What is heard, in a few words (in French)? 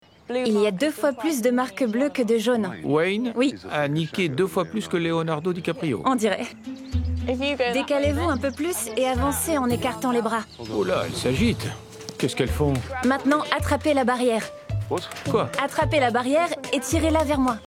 VOICEOVER FR Fermiere; Amazon